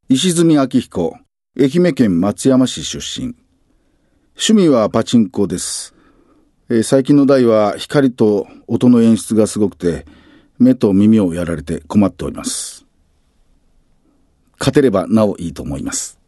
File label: freetalk, male voice